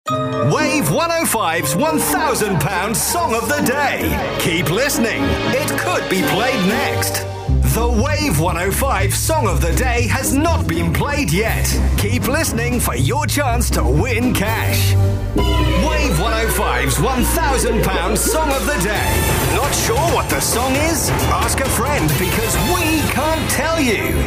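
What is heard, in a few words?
imaging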